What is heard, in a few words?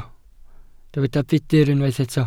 "budr", Welsh